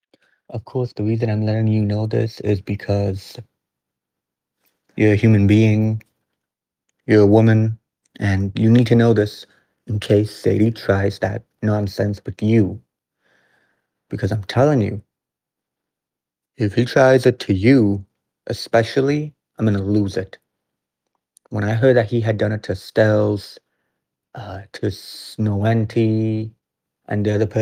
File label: voice message